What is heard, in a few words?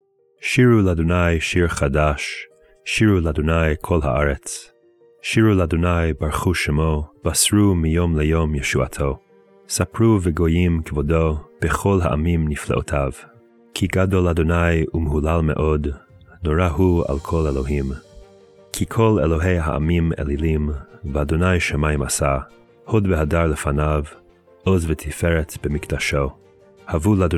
poetry and prayer psalm hebrew